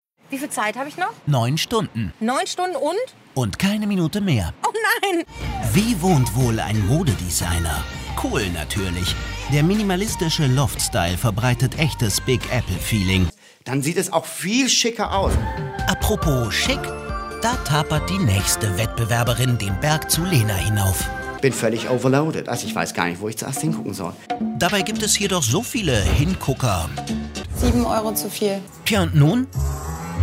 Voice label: dokusoap; rtl 2014

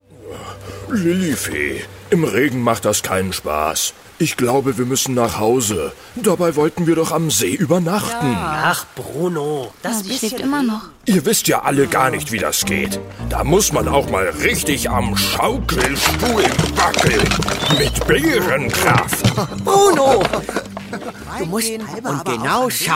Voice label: hoerspiel; bruno der baer; prinzessin lillifee

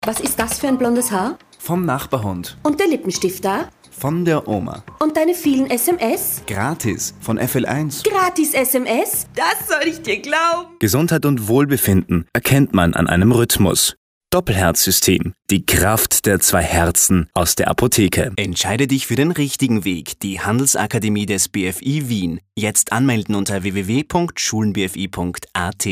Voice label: werbung misch

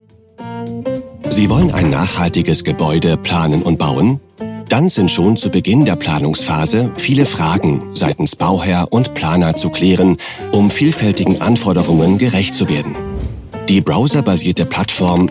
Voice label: erklaerfilm; Sprecher in Stuttgart; Muttersprache Deutsch

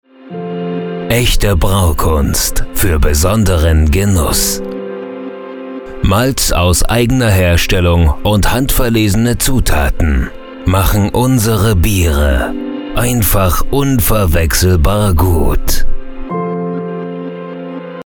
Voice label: Bierwerbung

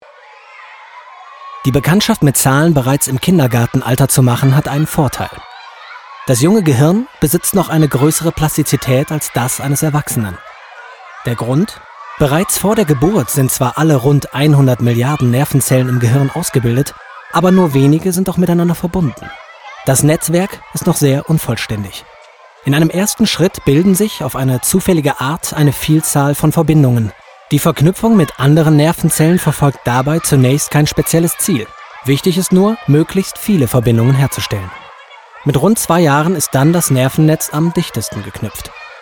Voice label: reportage

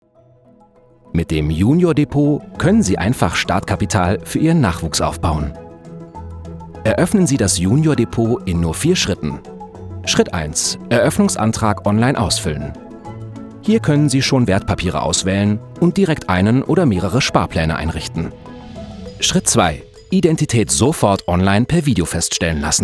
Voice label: erklaerfilm; comdirect junior depot

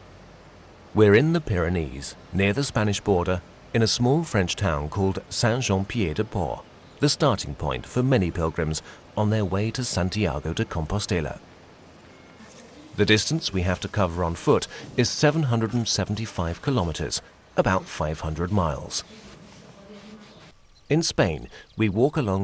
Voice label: doku; way st james

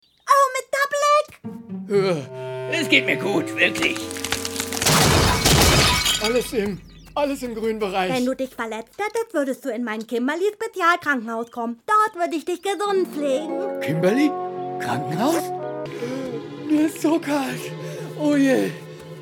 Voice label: Trickfilmsynchron

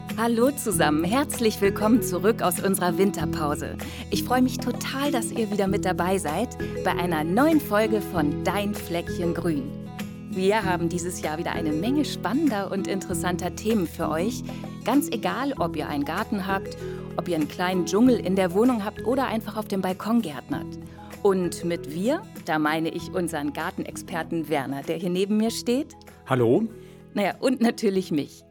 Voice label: podcast; dein fleckchen grun

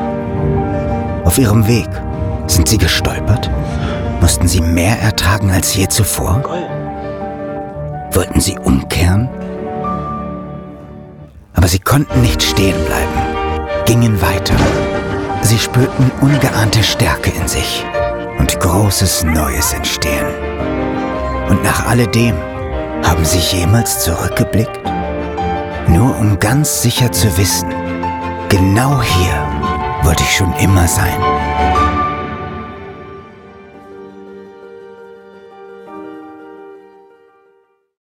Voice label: sprachprobe werbung off der innere ruf; Muttersprache Deutsch; Sprecher in Wien, Köln

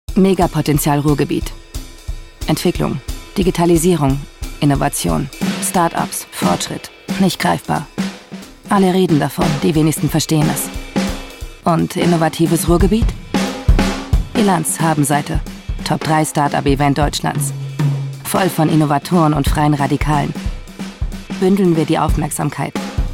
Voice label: spot; ruhr summit

